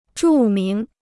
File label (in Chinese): "著名", Chinese